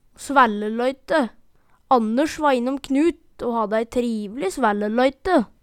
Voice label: svælleløyte, Numedalsmål

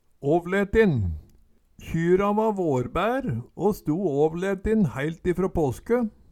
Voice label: "åvletin", Numedalsmål

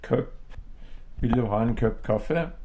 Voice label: kopp, Numedalsmål; Substantiv hankjønn; Hushald, mat, drikke

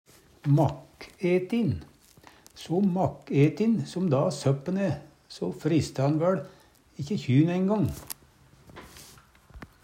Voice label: makk-etin, Numedalsmål

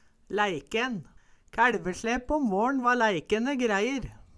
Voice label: "læikenn", Numedalsmål

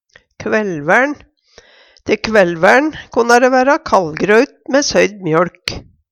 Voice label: kvaellsvaern, Numedalsmål

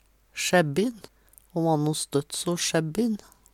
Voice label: sjæbbin, Numedalsmål; Ho va no støtt so sjæbbin.; Adjektiv

